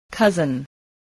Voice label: "cousin", voice spelling

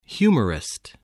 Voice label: "humorist", voice spelling

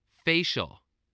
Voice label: "facial", voice

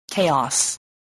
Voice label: "chaos", English, voice

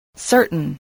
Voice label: "certain", English, voice

